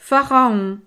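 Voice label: "pharaon", French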